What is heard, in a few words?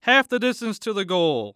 Sports Human Voice Male Young Man Football Referee